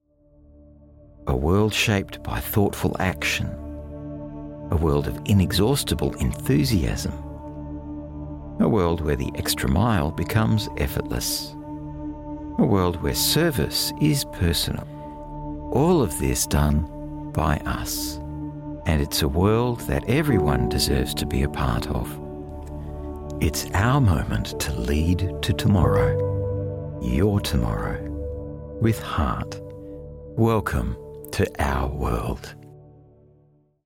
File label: Explainer Videos, Smooth, Authentic, male; Our World